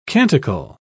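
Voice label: "canticle", British English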